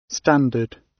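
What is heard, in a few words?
"standard", British English; Technology; Công nghệ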